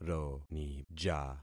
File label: "ronija", concat syllables; Affixing experiment